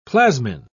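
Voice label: "plasmin", British English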